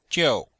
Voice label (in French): "jiu4", chinois; Cours de Chinois; Leçon4